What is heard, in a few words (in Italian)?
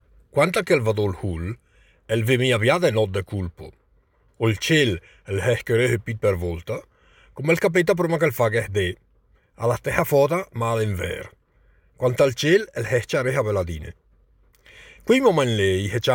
dialetto lumezzanese; Crespuscolo